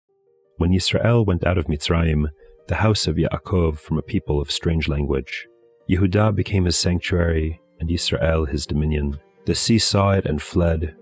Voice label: poetry and prayer psalm english